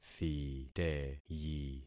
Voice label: "thiteyi", concat syllables; Affixing experiment

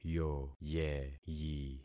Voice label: "yoyeyi", concat syllables; Affixing experiment

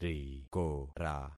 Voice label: "rikora", concat syllables; Affixing experiment

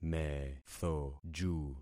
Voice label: "methoju", concat syllables; Affixing experiment

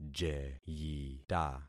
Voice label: "jeyita", concat syllables; Affixing experiment

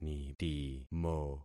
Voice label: "nitimo", concat syllables; Affixing experiment

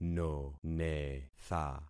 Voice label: "nonetha", concat syllables; Affixing experiment

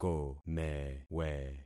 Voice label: "komewe", concat syllables; Affixing experiment

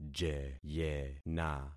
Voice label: "jeyena", concat syllables; Affixing experiment

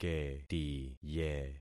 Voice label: "ketiye", concat syllables; Affixing experiment

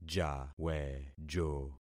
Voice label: "jawejo", concat syllables; Affixing experiment

